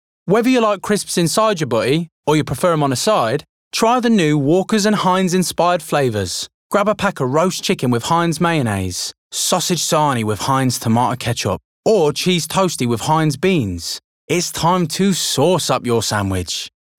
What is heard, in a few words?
Ad; Walkers